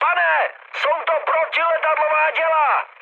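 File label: damaged aa, Czech, mood high; War Thunder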